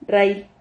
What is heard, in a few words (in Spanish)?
"rrail", Silabas